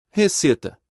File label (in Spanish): Receta en portugues